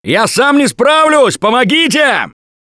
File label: help, russian; Gambler